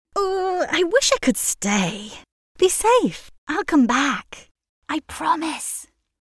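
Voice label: English British, female, voice over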